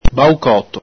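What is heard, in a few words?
"baucoto", Veneto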